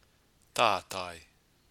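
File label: "tatai", Māori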